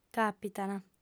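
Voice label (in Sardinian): "càpitant", female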